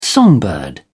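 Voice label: "songbird", British English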